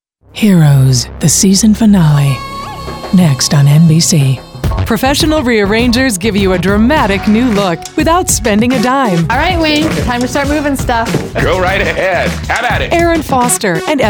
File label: promo